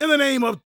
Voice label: PREACHIN, Gospel, PREACHER